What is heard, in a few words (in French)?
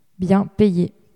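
"bien payé", French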